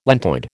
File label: "lentoid", American